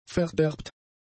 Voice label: "verderbt", German; adjectives

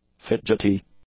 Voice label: "fidgety", english; adjectives

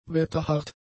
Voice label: "wetterhart", German; adjectives

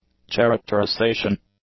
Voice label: "characterisation", English; nouns

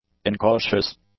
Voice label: "incautious", English

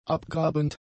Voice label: "abgrabend", German; adjectives

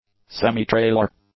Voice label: "semi trailer", English; nouns

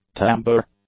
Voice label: "tambour", english; nouns

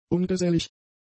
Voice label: "ungesellig", German; adjectives

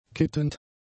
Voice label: "kittend", German; adjectives

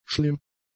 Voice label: "schlimm", German; adjectives

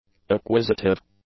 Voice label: "acquisitive", English; adjectives

